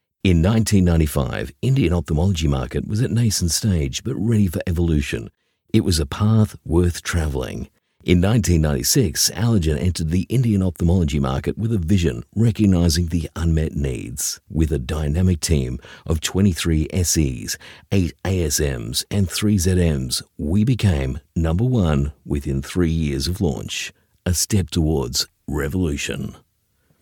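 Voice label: English Australian, male, corporate, accent of australia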